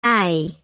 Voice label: Phoneme Female; Commonscript; Lynguistykon